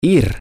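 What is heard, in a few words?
male, spanish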